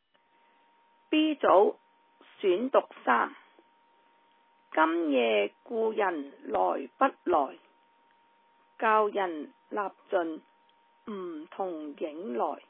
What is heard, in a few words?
Cantonese Read Aloud; 2021 ANCCS 國粵語朗讀稿漢語拼音 Read Aloud Articles